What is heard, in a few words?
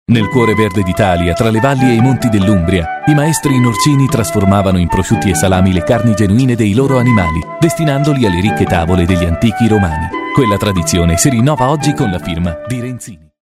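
Italian, male, commercial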